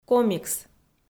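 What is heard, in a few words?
"комикс", Komi, female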